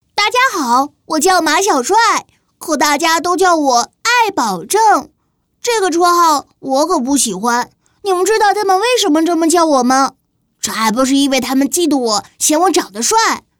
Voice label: 女 男童 7岁; 马小帅

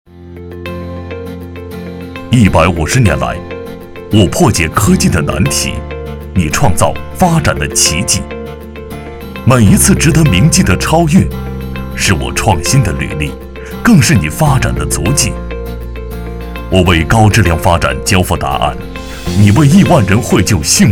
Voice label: 男 旁白 轻松解说

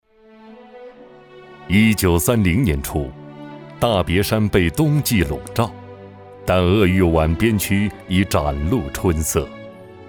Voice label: 男 专题; 红一军

